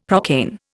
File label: "procaine", Indian; Dictionary